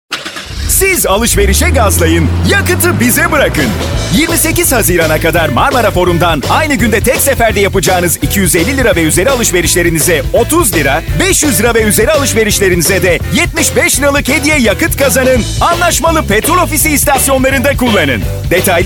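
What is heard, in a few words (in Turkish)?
reklam, Turkish; marmara forum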